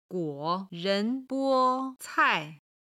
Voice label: "guo3ren2bo1cai4", chinese

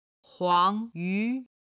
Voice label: "huang2 yu2", Chinese

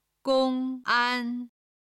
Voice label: "gong1 an1", Chinese

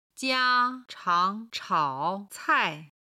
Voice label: "jia1 chang2 chao3 cai4", Chinese

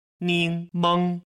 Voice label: "ning meng", Chinese

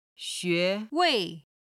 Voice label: "xue2 wei4", chinese

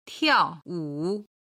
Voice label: "tiao4wu3", Chinese

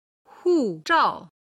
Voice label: "huzhao", chinese

